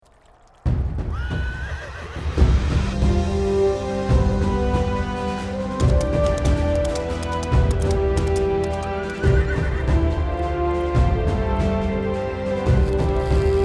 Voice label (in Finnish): äänibrändi spiikin kanssa; forssa